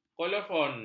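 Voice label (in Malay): "kolofon", Malay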